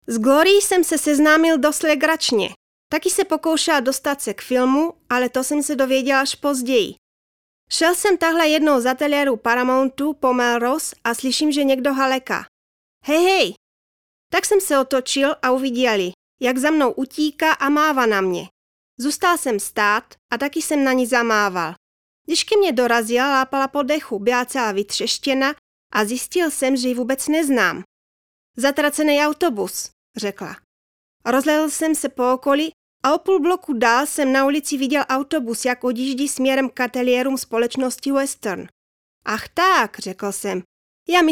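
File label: czech female narration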